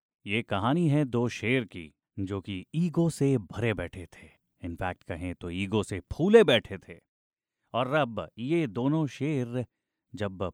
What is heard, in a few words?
male hindi voice over talent narrative